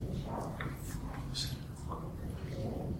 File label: whisper